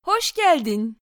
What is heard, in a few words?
bonjour, voix